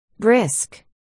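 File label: "brisk", spoken word, articulation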